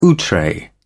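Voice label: "outre", British English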